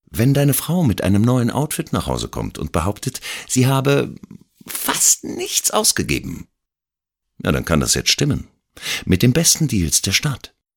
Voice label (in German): Werbung; C und A